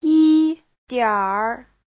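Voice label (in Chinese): "yi1 dianr3" Chinese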